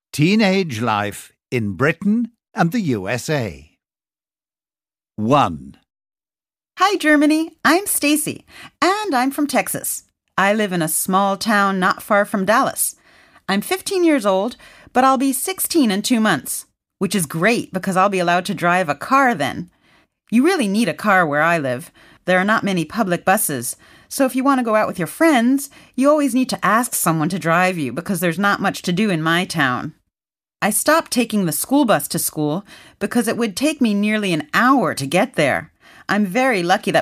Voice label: british us teenages